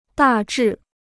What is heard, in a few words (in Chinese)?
"大致", Chinese